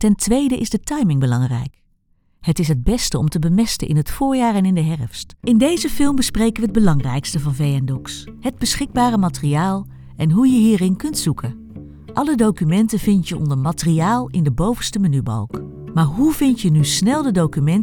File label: elearning